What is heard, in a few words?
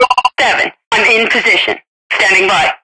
radio in position fem; svencoop